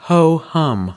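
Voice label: "ho hum", American English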